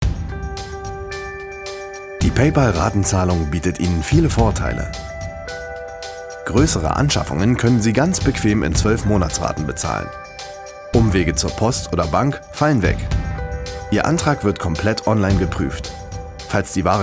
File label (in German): Erklärfilm; PayPal Ratenzahlung - So funktionierts